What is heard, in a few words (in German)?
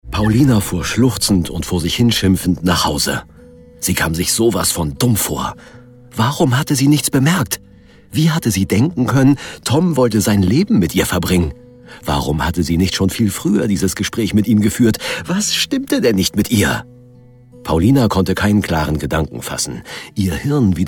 Erzaehler